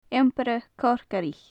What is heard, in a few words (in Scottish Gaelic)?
"iompaire corcaraich", gaelic; Faclan Nàdair